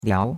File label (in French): "liao2", chinois; Cours-de-Chinois